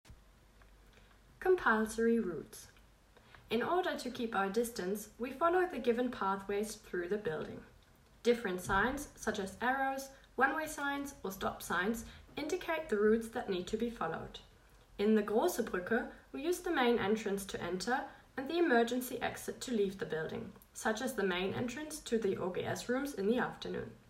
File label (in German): Englisch